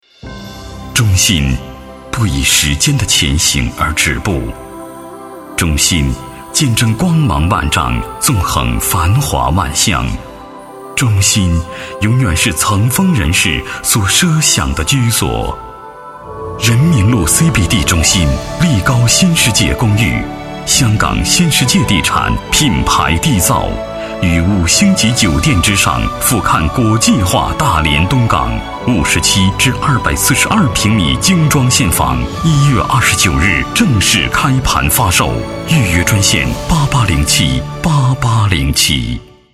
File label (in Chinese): boy house, guanggao; Chinese; houzhongdaqi